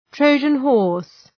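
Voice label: "Trojan horse", English; (Ουσιαστικό)● δούρειος ίππος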